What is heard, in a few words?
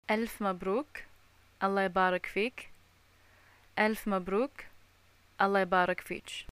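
Bahraini greeting wedding, arabic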